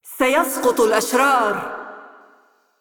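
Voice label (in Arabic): assist, Arabic; ar AE; VO; Kayle; bloom minigame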